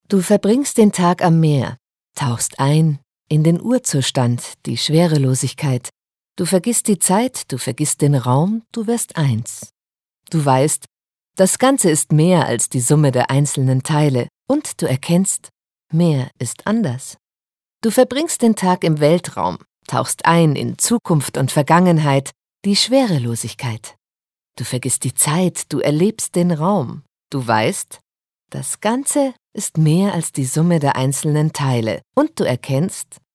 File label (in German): Schauspiel Neutral